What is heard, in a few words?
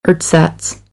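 "ersatz", American English; AmericanBritish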